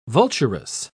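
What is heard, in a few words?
"vulturous", British English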